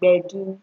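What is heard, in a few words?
"gbedu", Nigerian Pidgin, female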